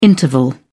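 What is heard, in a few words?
"interval", British English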